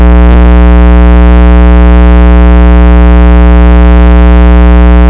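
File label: "pick", spoken word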